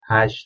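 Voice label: "hash", Persian, male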